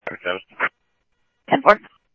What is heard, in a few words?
Police, scanner audio; ThompsonPeakHigh